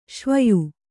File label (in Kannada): "ಸಿವಾಯಿ", Kannada